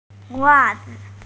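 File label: "socks", hearing impaired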